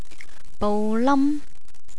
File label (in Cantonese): bou lam, Cantonese, fruit